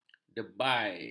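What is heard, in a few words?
"dembai", Malay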